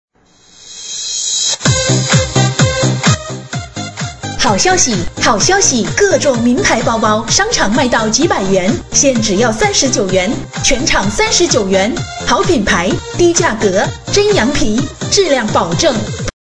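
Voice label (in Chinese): 女促销 街边叫卖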